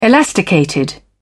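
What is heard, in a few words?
"elasticated", British English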